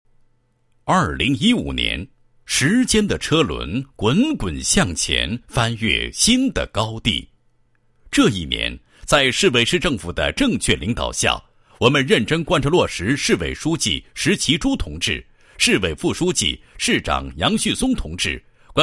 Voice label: 男-政府机构-应急之花 市政府应急系统宣传, 配音案例

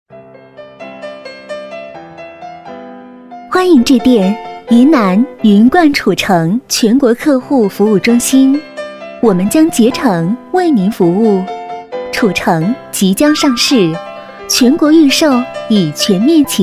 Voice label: 女 彩铃, 国语女声配音, 磁性; 您好！欢迎致电云南云冠褚橙全国客户服务中心

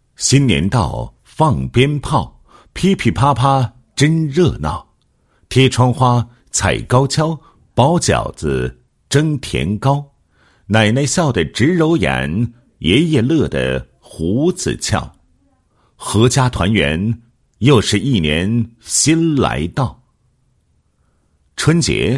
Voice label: 男 文化 片头解说词, 配音; 中华年夜饭大片头解说词

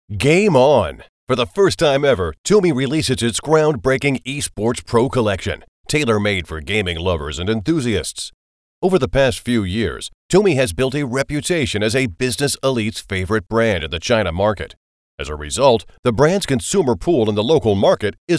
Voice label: 外籍英语男, 电子竞技介绍, E-sports intro

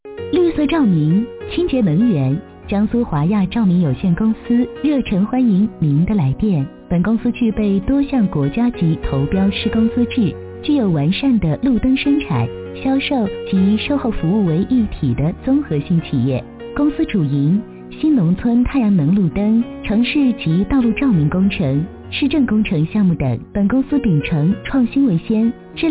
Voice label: 女, 彩铃, 照明; 华亚照明

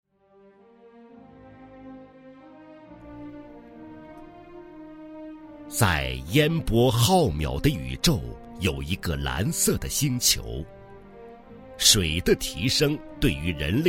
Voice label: 专题 水泵宣传介绍 舒缓大气, 国语男声配音; 本溪水泵宣传介绍

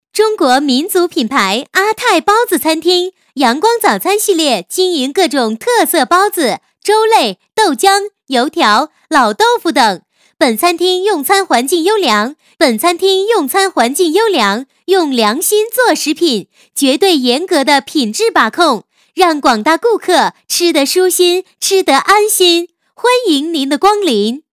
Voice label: 女 促销, 国语女声配音; 阿泰包子

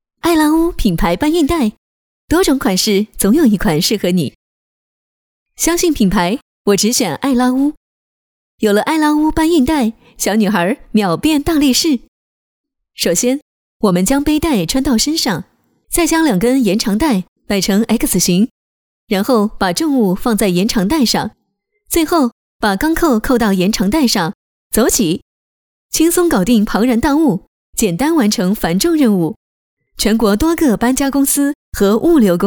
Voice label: 女 产品解说 品牌搬运袋

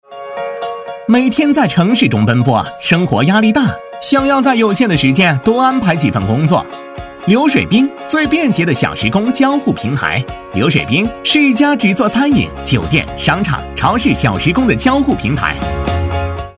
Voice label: 男 职场平台; 飞碟说

